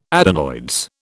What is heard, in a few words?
"adenoids", British